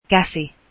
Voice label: finnish hand